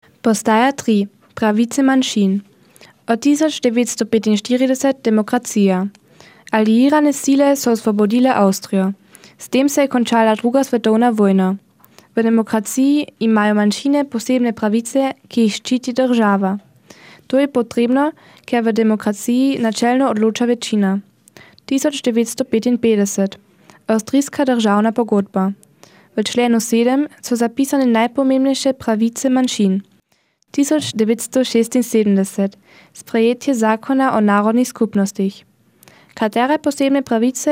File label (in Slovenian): slovenski